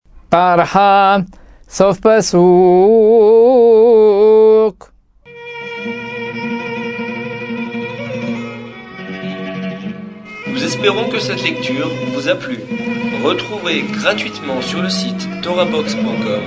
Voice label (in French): apprentissage taamim selon le rite constantinois; paracha